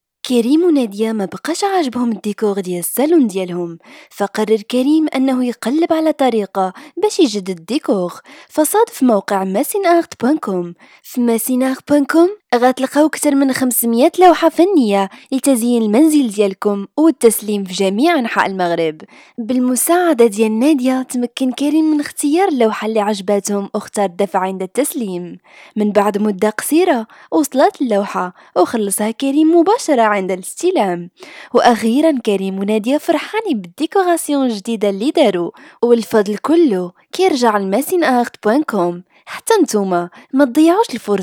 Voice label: 阿拉伯语女 外语 小语种

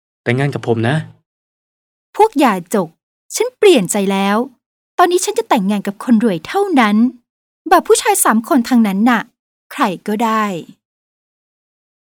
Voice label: 泰语男 外语 小语种

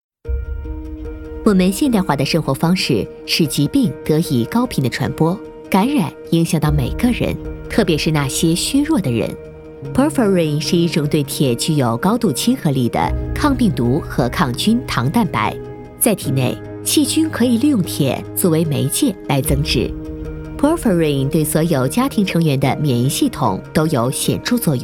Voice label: 女 多媒体 培训课件 医学学术类; 新加坡

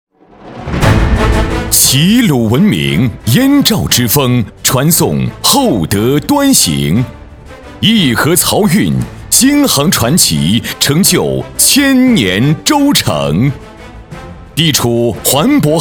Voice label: 专题 企业 激情, 男国; 鲁班集团

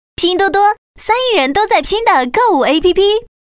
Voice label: 广告 互联网 活力; 拼多多

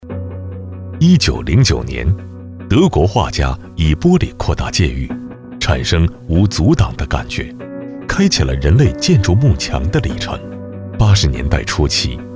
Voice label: 专题 企业 稳重, 男国; 厦门锐建

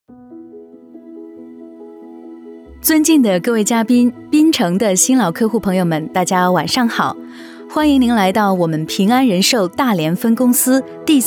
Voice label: 培训课件 课件解说讲师

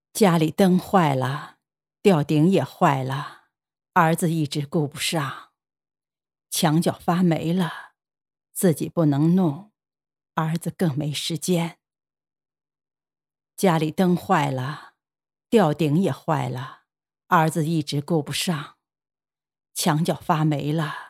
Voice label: 动画 角色 60岁老太太旁白; 女

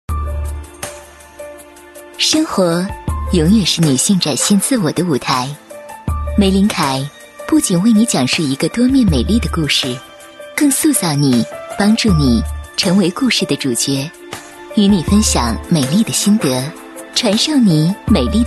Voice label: 广告 化妆品 优雅, 女国; 玫琳凯

